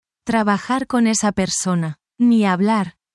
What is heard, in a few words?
spanish, spanish phrases negative language